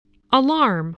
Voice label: "alarm", spelling